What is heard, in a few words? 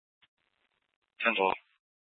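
Police Department North Dispatch, scanner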